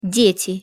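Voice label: "deti", Russian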